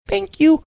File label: cute thank you